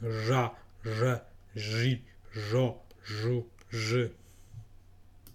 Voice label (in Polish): "ż", fonetyka